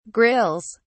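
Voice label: "grills", British English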